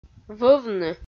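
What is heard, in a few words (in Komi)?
"вӧвны", Komi, female; audio dict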